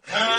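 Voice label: "huh", vocal sample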